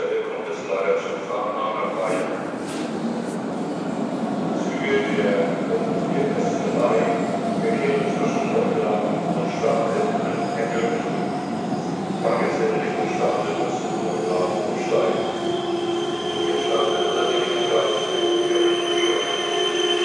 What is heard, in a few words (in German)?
durchsage jungfernstieg